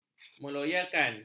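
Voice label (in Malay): "meloyakan", Malay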